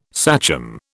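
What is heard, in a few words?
"sachem", British English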